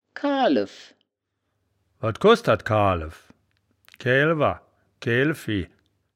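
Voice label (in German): "kaalew", wittlicher woerterbuch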